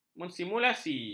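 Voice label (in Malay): "mensimulasikan", Malay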